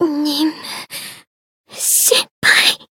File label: voice jp; renri